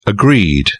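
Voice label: "agreed", British English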